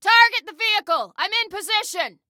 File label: Target Vehicle, Female